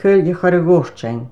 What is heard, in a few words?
"coillcchorraghoirtein", Placenames, islay